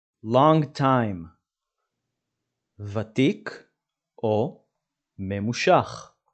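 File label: "longtime" English, "ותיק ממושך" Hebrew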